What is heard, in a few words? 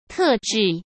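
"特制", Mandarin Chinese; chinese-flashcards; hsk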